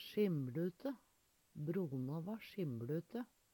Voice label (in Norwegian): sjim Lute, Numedalsmål; Eksempel på bruk Brona va sjimLute.; fargene går over i kvarandre